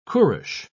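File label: "qurush", English, uk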